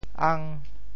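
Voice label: "ang5", Teochew; 潮州; 红虾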